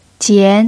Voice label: "jian2", mandarin pinyin